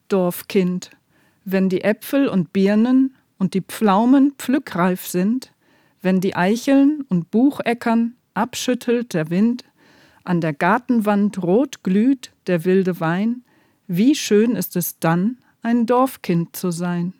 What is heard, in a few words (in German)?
Hochdeutsch; Kastanienplatz; Plattdeutscher Weg; Nottuln